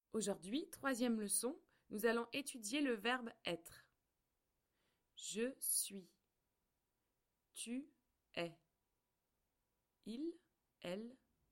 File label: E-learning